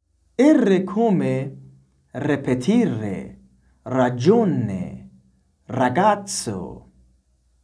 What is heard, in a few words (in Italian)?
italian